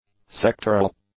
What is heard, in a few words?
"sectoral", English; adjectives